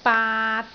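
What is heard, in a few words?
"baat3", Cantonese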